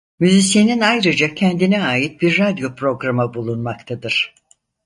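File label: "ait", Turkish; mozilla voice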